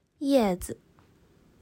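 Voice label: "叶子", Chinese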